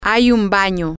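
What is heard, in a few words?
female, spanish; there Is A Bathroom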